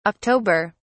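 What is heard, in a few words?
"october", English, British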